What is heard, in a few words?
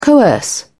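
"coerce", English, British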